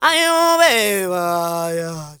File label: ZULU MALE, DRY; Spectrasonics; Heart of Africa 2; S.MALEDRY